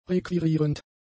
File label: "requirierend", German; adjectives